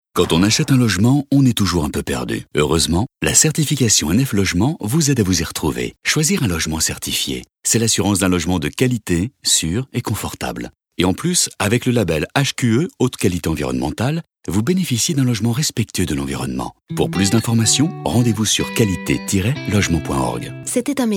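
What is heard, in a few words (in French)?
PUB, voix; Qualitel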